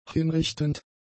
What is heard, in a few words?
"hinrichtend", German; adjectives